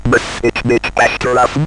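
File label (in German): "Muss Ich Mich Bestrafen", German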